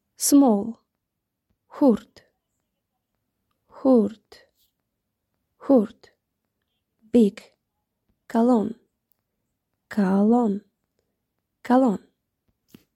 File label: Tajik Small big